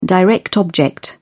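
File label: "direct object", British English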